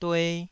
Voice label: "due2", taiwanese syllable